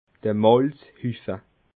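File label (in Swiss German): "malzhuffe", Alsatian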